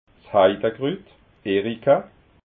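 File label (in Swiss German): "heidakrut", Alsatian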